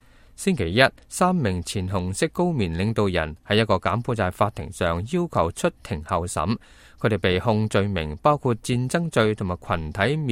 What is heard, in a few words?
cantonese, news